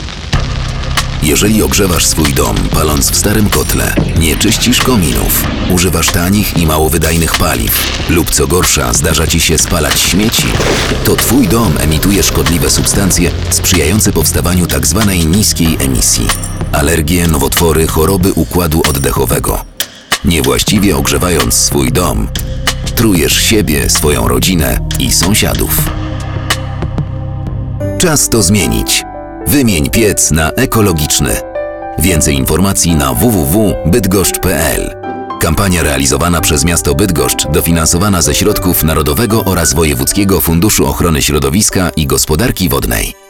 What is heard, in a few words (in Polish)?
spot radiowy